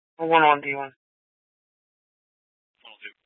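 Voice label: Scottsdale Police South Dispatch; Regional Wrlss Cooperative (RWC) - Smlcst H- Scttsdle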